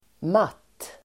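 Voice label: "matt", Swedish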